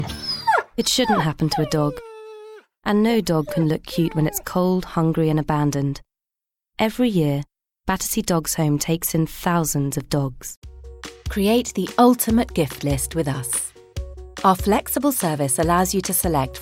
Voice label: voice reel narrative